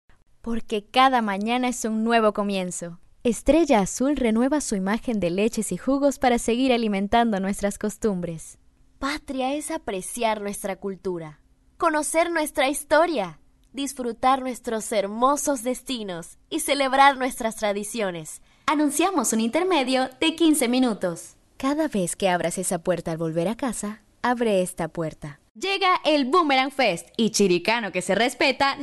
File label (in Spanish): Comercial